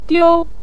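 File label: "diu" neutral tone, Mandarin Chinese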